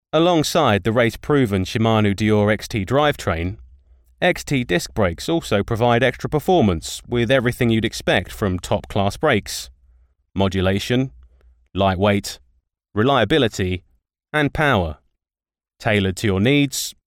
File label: quick voiceover sample, direction was laid back; from script i m working on today